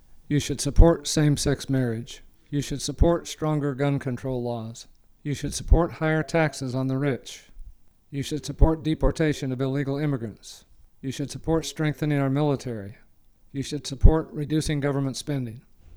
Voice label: male HIGH, voice pitch; voice pitch 2018